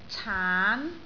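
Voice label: "caan2", Cantonese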